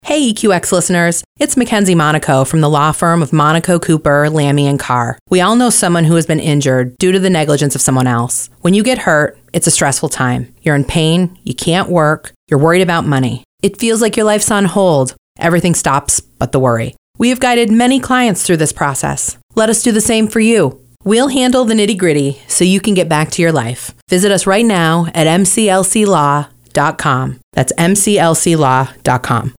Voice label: Radio Ad Personal Injury No Music; MCLC Law; EQX